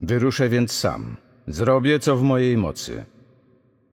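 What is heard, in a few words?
Game Intro, Polish; bloom minigame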